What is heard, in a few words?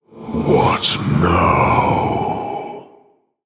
Male Orc Thinking